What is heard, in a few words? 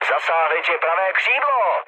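right wing, Czech, mood high; speech; War Thunder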